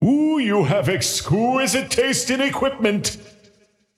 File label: scepter announce in game; dota